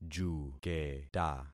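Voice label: "juketa", concatenated syllables; Affixing experiment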